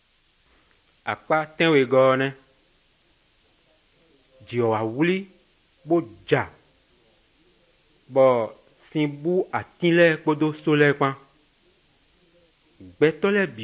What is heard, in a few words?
good news, fon fongbe bible drama